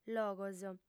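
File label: "logos", female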